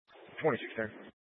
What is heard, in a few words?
Sheriff Dispatch; Mesa County